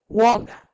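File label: "wogh", Proto-Indo-European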